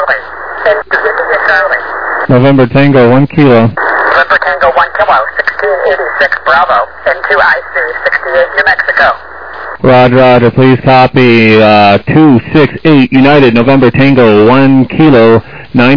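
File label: upper sideband 28MHz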